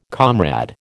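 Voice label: "comrade", American English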